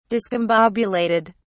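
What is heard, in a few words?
"discombobulated", English, British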